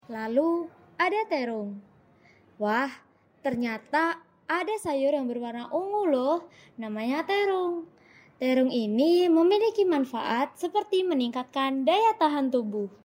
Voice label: terung short story